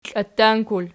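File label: "kliattankul" nominative, Archi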